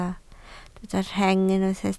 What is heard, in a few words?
"rheng", Welsh